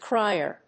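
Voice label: "crier", English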